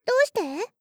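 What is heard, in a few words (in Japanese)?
"どうして?" Japanese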